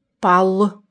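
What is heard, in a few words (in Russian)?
"Пал", нивхский; Тайга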